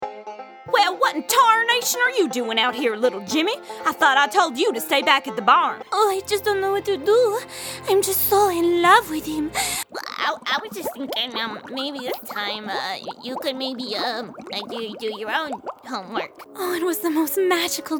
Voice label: Animation, princess voice over; Bella Swan; animation demo